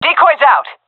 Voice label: throwing decoy, seal fem; vo; agents; Source Model Packs; Counter Strike Model Pack; CS2